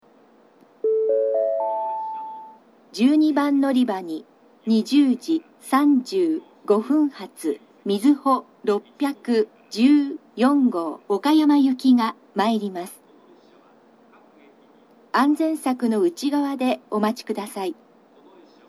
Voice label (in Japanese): のりば予告放送, 新幹線ホーム; 12番のりば予告放送（みずほ614号 岡山行き）; kumamoto